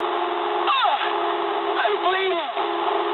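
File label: English damaged pilot mood low; War Thunder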